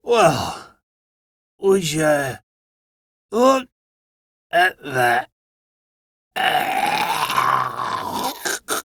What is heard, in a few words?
Death, en, Dialog Barks Battle; Warframe; Chipper